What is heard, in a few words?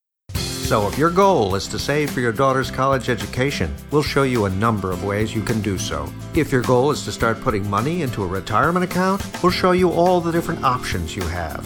Business Narration; Business narration demo; Voice Actor